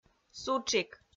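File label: "сутшик", Komi-Zyrian, female; audio dict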